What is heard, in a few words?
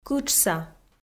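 "кытшса", Komi, female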